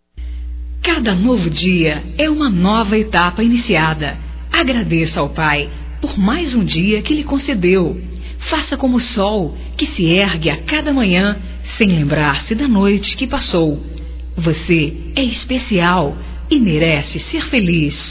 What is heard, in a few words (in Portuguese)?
Você é Especial Feminino, Telemensagens, Só Voz; Telemensagens 2017